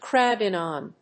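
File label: "crowd in on", English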